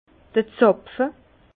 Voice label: "zapfe", Bas Rhin; vin; bouchon

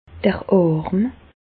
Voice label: "arm", Bas Rhin; medecine; bras

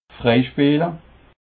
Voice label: "freispeele", alsace

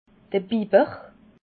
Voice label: "biber", alsace

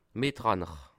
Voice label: "mitranner", alsace

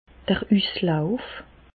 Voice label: "uslauf", Bas Rhin; medecine; gonorrhées

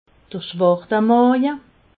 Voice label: "schwartemaje", alsace

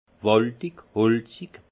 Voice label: "waldig", alsace; vin; boisé

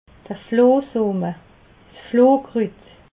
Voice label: "flohsoot", alsace

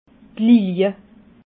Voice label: "lilie", alsace

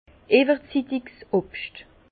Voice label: "iwwerzittigs", Bas Rhin; fruits trop muris; vin

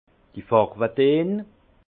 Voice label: "farwe deen", alsace; les teintes; vin

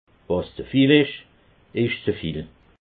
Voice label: "zevil", alsace

